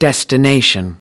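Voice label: "destination", English word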